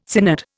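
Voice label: "synod", Indian English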